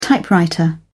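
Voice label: "typewriter", British English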